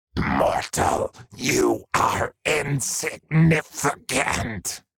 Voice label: Demon beast imp monster sigh you are insignificant